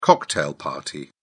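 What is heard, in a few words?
"cocktail party", English, British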